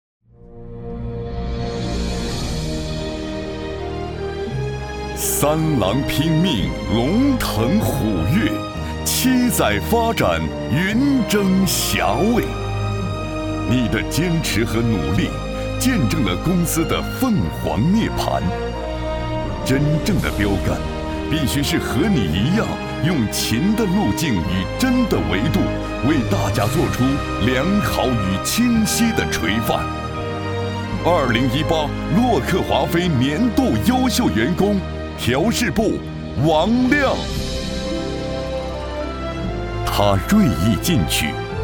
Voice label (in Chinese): 男国 专题 颁奖; 年度人物颁奖